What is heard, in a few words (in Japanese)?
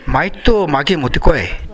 "maeto", minami yamagata